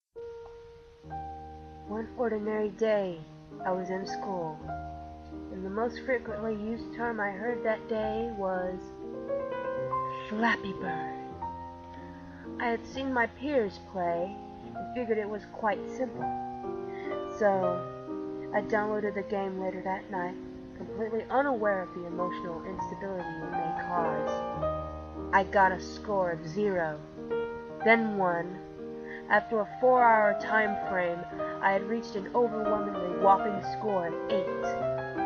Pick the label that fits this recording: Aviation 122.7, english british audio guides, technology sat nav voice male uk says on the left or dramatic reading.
dramatic reading